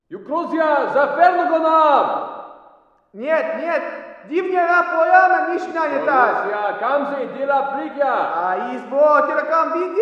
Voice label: walla rus mountain conv; modern warfare 2; amb walla